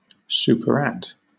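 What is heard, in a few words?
"superadd", British English; pronunciations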